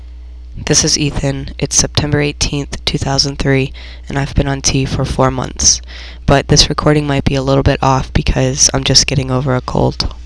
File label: Four Months, testosterone, voiceclips; transition